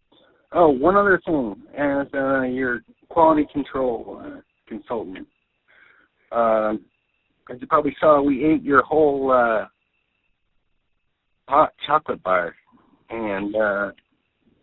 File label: voicemail